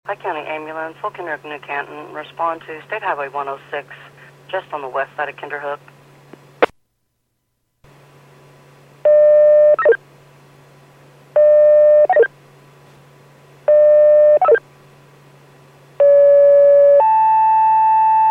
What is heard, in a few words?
PAGING